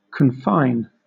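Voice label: "confine", British English; pronunciations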